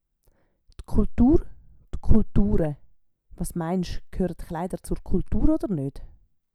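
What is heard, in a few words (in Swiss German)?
"d Kultuur", Swiss German; Wortschatz Kapitel 8; Kapitel 8 Zusatzwortschatz 4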